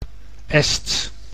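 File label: "Ests", Dutch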